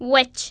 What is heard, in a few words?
witch; The Interactive Alphabet